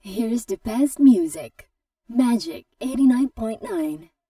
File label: Radio; Magic 89.9